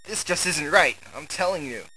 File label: sad opponent did well; Spades Deluxe 1.6; Hal